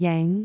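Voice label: "jeng5", Cantonese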